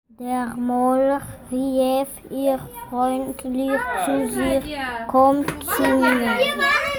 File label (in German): Sprachmemo